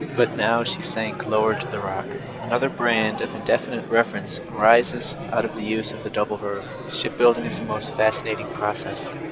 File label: babble 5dB mwf